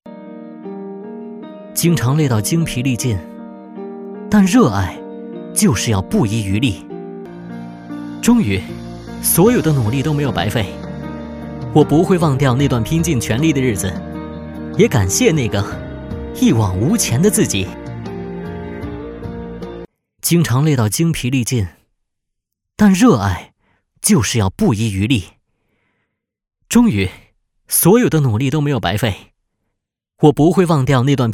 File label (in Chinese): 男, 角色 素人年轻